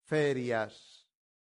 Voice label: "ferias", latin; santillana